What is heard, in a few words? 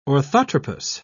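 "orthotropous", British English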